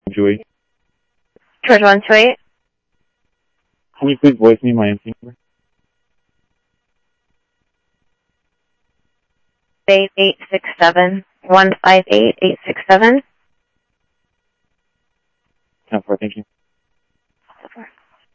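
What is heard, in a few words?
Sheriff East County, scanner audio trunk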